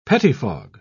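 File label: "pettifog", English, British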